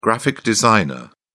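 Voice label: "graphic designer", British English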